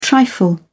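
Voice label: "trifle", British English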